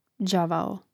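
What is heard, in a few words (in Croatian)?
"đavao", Hrvatski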